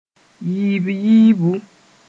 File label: "yiib-yiibu", Moore